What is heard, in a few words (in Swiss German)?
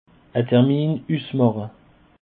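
"termin", Alsatian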